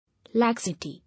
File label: "Laxity", English